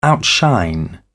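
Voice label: "outshine", British English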